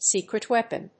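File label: "Secret Weapon", English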